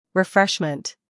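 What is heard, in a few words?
"humor", British; refreshment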